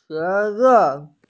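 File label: "ze2ren4", Mandarin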